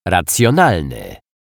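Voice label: "racjonalny", Polish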